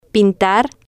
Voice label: "pintar", Spanish

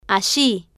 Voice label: "alli", Spanish

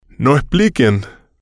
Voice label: "no expliquen", spanish

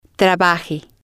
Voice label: "trabaje", spanish

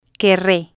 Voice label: "querre", spanish

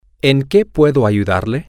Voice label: how can I help you, spanish, M

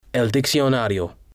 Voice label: "el diccionario", Spanish

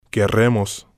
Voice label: "querremos", spanish

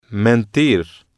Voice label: "mentir", Spanish

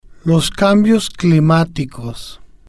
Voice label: "los Cambios Climaticos", spanish

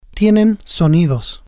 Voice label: "tienen sonidos ellos", spanish

